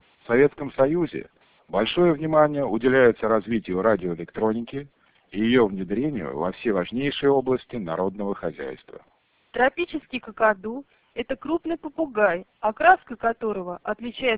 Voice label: speech CELP 4600 VAD